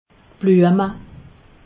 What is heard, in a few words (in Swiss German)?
"blueme", alsace